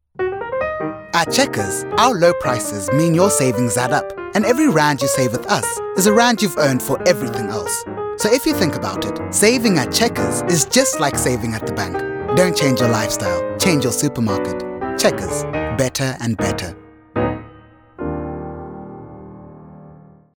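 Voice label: english south african male commercial; voice demos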